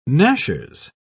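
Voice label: "gnashers", British English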